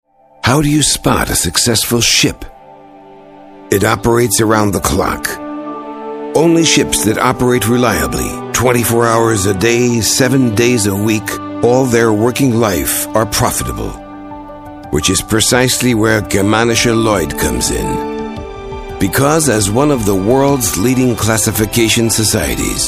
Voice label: Narration